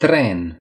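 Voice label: "train", Romanian; gcompris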